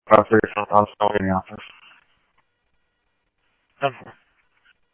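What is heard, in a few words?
Simulcast PD Police Department, scanner audio trunk; Mesa Simulcast; MESA PD 1 Fiesta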